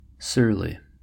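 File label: "surly", American English; pronunciations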